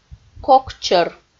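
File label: "кокчӧр", Komi, female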